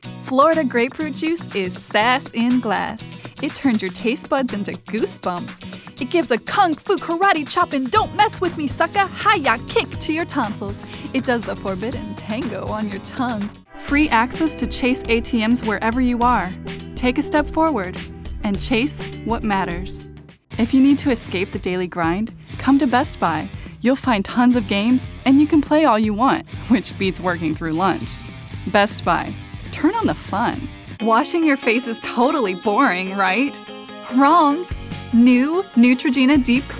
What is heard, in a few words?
Commercial